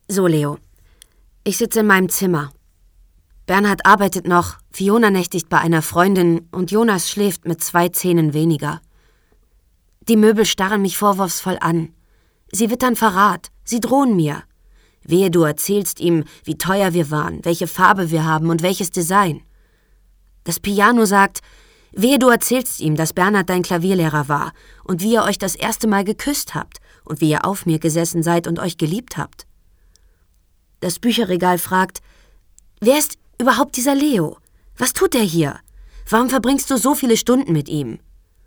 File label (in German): hoerbuch; sprecher